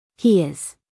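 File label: "He is", American English, female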